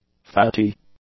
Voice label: "fatty", english; adjectives